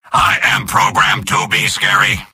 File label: robot; soldier; video games; source engine; tf; mvm